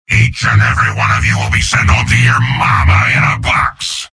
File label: soldier taunts; tf2; garrysmod; mvm